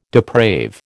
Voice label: "deprave", American